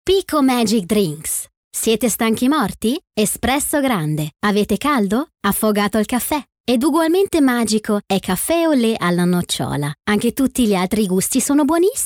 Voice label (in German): IT Werbung Dynamisch